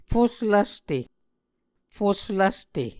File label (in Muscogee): "fuslvste", Muscogee